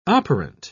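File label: "operant", British English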